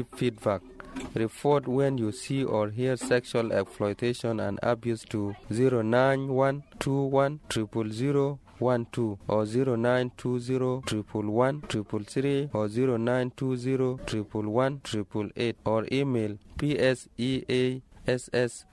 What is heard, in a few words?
Radio jingle Humanitarian Worker; ROA